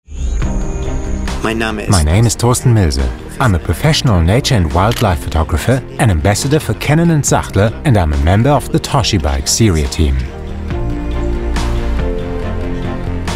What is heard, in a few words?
voice over English; toshiba